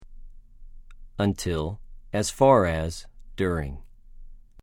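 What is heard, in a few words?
"עַד", Hebrew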